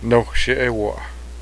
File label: race horse, cheyenne